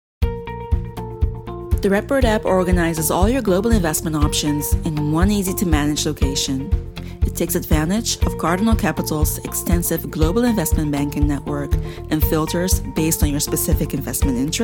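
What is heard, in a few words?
female voice, English accent, Dutch; GoLocalise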